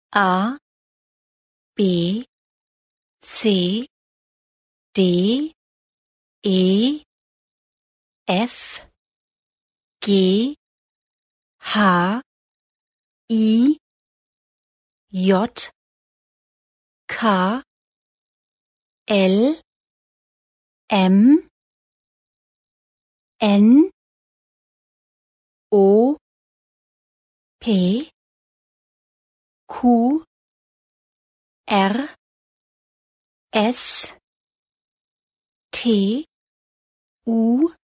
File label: German Alphabet Audio